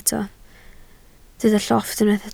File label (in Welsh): "llofft", Welsh